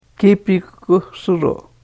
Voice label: karuk

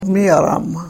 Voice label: "miaramah", karuk

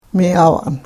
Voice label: "miavan", karuk

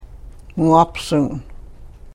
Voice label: "muapsuun", karuk

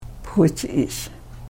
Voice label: "pufichiish", karuk

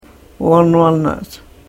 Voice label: "voonvanaach", karuk

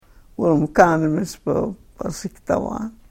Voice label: karuk

